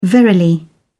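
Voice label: "verily", British English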